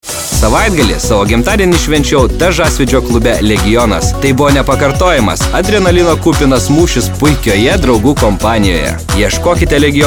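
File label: lithuanian male commercial; voice demos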